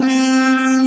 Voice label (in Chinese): "路" C4; 蓝蓝路